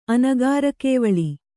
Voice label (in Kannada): "ಅನಗಾರಕೇವಳಿ", kannada